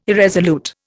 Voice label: "irresolute", Indian

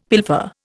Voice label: "pilfer", Indian; Dictionary

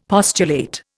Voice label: "postulate", Indian; Dictionary

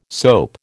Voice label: "soap", American

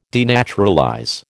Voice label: "denaturalize", American

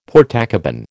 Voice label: "Portakabin", American

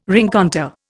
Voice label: "rencontre", Indian

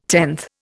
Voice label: "tenth", Indian

